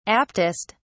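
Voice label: "aptest", British English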